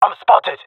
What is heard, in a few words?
takingfire, male; jungle; Counter Strike Model Pack; CS:GO